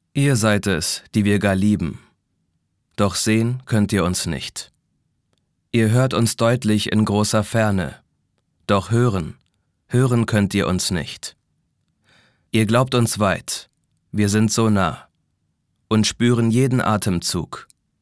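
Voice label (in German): sachlich, Männer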